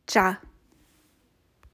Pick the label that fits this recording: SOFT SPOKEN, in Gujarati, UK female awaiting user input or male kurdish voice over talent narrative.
in Gujarati